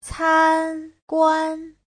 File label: "can1guan1", Chinese